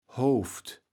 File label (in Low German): "Hooft", kaevels platt